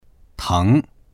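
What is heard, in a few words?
"teng2", Chinese; 疼; 痛い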